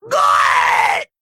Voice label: Male Kobold Death